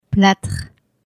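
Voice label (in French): "platre", French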